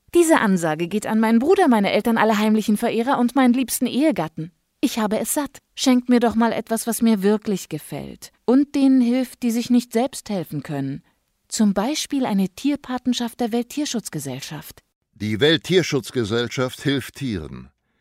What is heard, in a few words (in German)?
Radiospot; Welttierschutzgesellschaft Patenschaften 2019